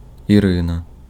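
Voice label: Ukrainian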